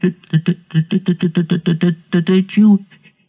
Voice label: dit dut dit dut did did doo didjoo, Voice gibbrish